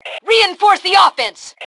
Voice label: Attack Reinforce, Female